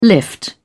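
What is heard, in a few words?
"lift", British English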